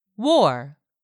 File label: "war", English; 3000 words